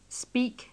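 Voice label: "speak", British English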